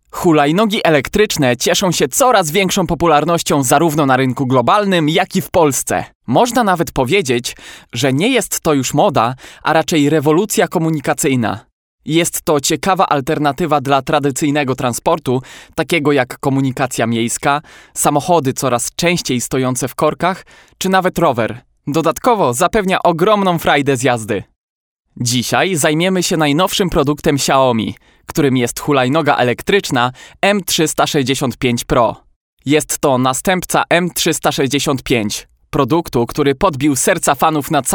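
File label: Polish, male, narration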